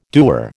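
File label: "doer", American English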